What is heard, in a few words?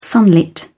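"sunlit", English, uk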